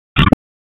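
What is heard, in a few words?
Police District Dispatch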